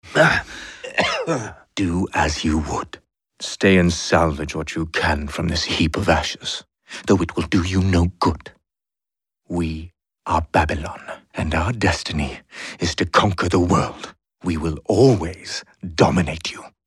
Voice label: Character; Babylon

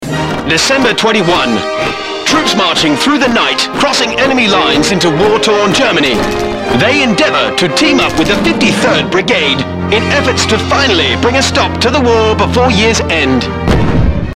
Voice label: war narration

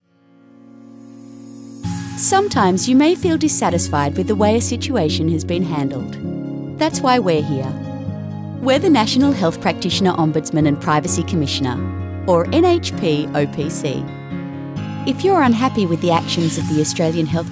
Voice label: corporate

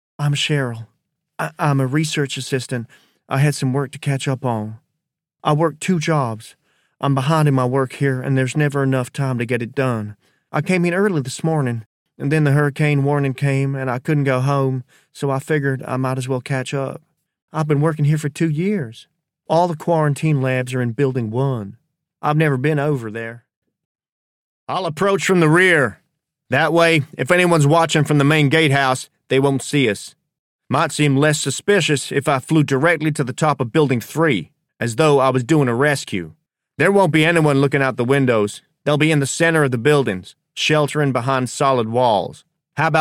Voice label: Accent Reel Southern Mid West

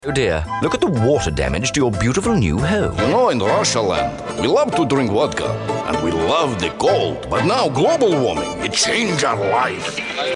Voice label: Russian Accent

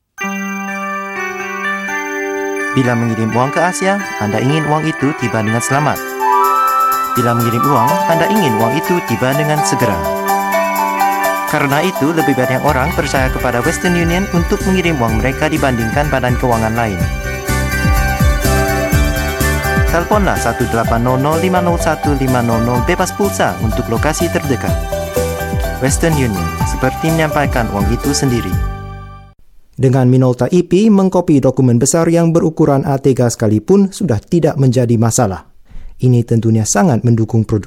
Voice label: indonesian; EM Voices

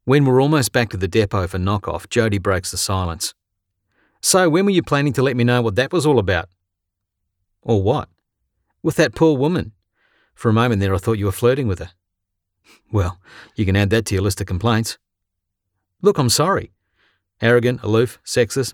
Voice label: audiobook

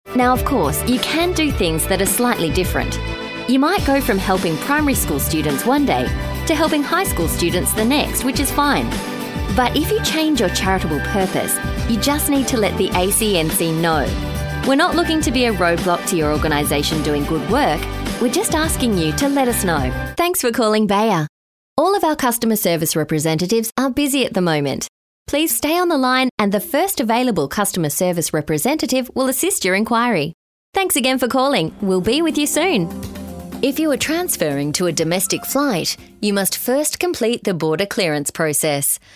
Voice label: CORPORATE

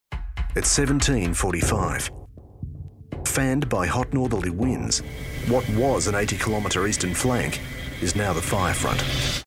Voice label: Doco Voice; CFA Firestorm

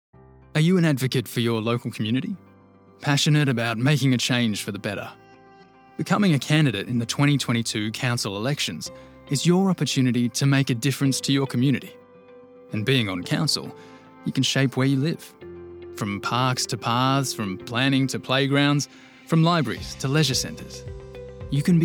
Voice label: LOCAL COUNCILS CONVERSATIONAL

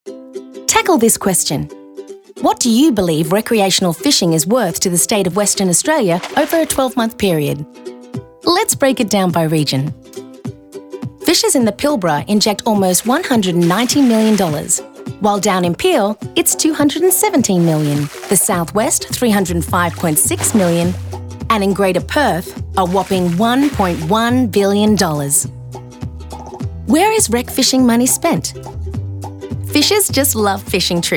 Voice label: Corporate

